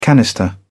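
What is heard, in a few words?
"canister", British English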